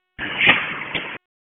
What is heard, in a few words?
PS TAC, p25